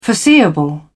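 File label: "foreseeable", British English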